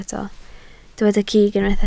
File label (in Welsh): "cig", Welsh